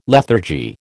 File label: "lethargy", American